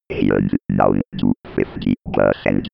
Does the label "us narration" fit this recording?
No